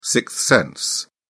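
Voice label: "sixth sense", British English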